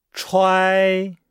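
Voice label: "chuai", Chinese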